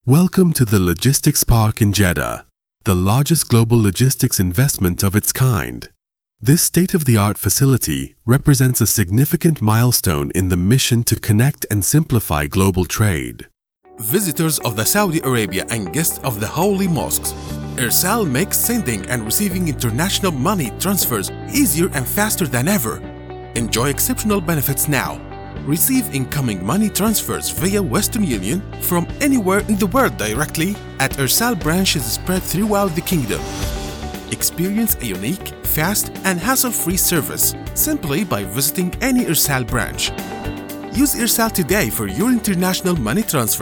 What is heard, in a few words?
voice, profesional voiceover